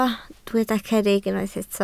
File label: "cerrig", Welsh